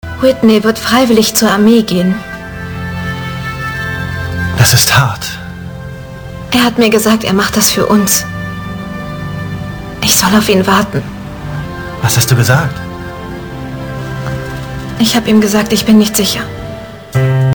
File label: german